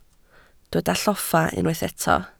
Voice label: "lloffa", Welsh

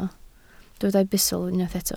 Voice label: "bustl", Welsh

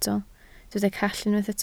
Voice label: "call", Welsh

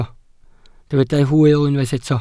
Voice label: "hwyl", Welsh

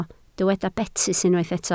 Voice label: "betys", Welsh

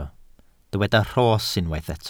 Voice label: "rhos", welsh

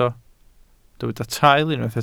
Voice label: "traul", Welsh

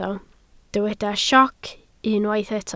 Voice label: "sioc", Welsh

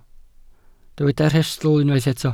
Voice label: "rhestl", Welsh

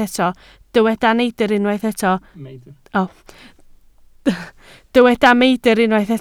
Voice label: "meidr", Welsh

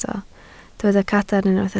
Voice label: "cadarn", Welsh

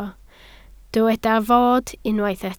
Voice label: "fod", Welsh

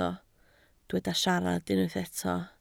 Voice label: "siarad", Welsh

